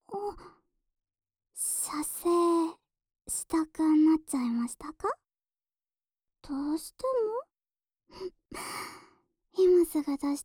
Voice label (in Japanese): オナニー 応援 おま○こ 囁き ボイス 左耳; 耳かきリフレ『春乃撫子』